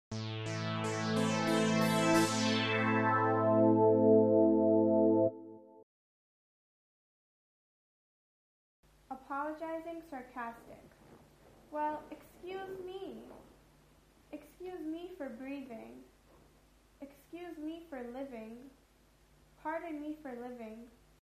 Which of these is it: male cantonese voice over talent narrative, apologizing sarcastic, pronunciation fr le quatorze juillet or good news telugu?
apologizing sarcastic